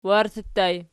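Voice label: "warti" ergative plural, Archi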